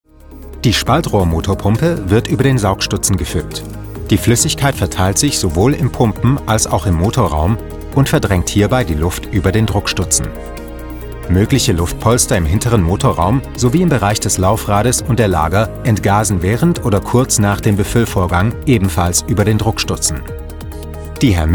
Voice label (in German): Industriefilm deutsch